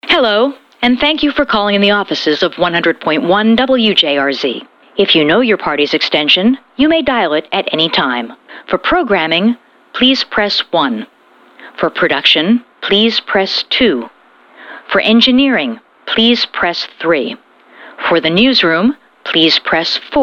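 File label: IVR, voiceovers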